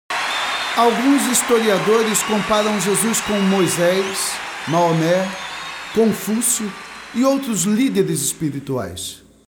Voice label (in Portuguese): Narracao